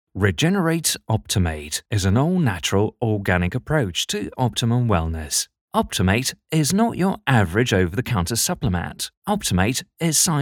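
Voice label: English UK Male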